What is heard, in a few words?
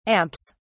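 "amps", British English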